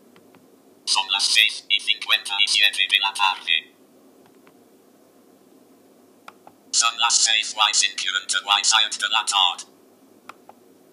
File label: Spanish accent in Clock testing